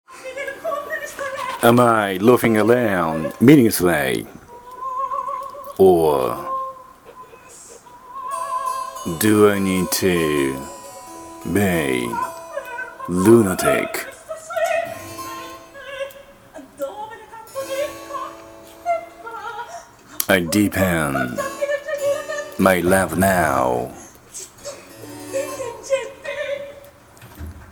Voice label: recitative-style lecture